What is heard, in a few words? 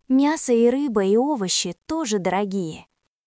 Russian, russian phrases insults; ロシア語のネガティブなフレーズ